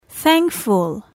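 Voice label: "thankful", English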